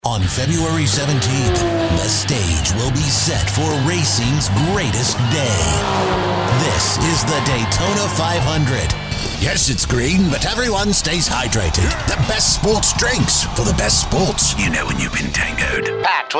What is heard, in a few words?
Promo Announcer